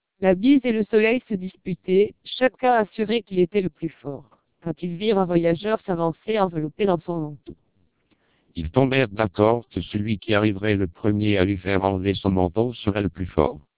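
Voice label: French speech, TWELP 700 bps vocoder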